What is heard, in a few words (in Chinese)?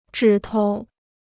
"指头", Chinese